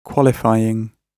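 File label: "qualifying", British English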